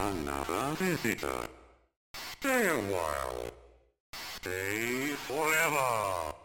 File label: C64 Speech "Stay A While"